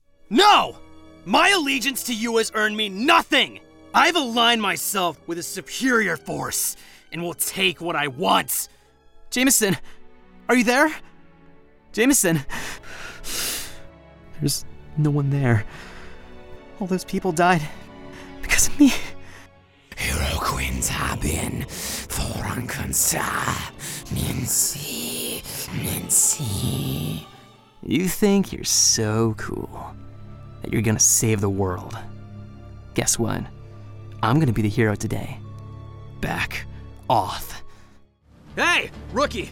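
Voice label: Video Game, Youthful Upbeat Millennial Voice Actor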